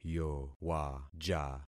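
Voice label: "yowaja", concatenated syllables; Affixing experiment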